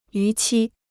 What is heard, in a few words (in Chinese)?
"逾期", Chinese